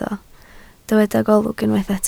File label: "golwg", Welsh